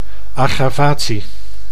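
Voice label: "aggravatie", Dutch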